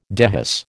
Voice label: "dehisce", American